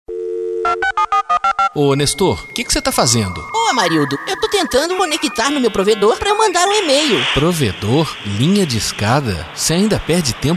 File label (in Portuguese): COMERCIAL; Cyber Lan House